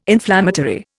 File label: "inflammatory", Indian